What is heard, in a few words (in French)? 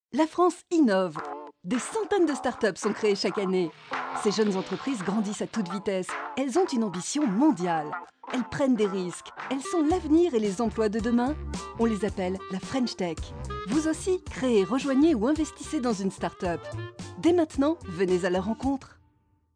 Pub French Tech